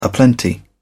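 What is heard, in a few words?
"aplenty", British English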